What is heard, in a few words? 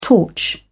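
"torch", British English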